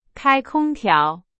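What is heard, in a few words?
Chinese, example sentence; hsk3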